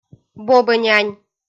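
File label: "бобӧнянь", Komi-Zyrian, female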